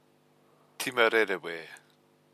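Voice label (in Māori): "tima rerewe", Māori word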